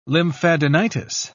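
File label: "lymphadenitis", British English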